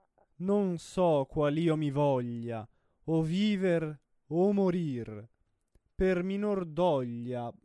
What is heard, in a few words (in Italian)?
espressivo; Ballata